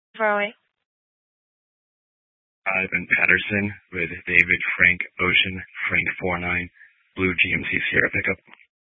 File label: Police Dispatch, scanner; Police 1 Dispatch